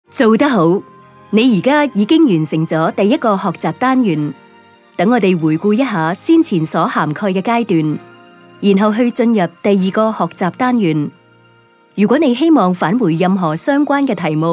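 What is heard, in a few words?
Canto narration, Cantonese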